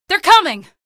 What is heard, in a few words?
evac enemies, female; video games; npc; source engine; episodic; episode 1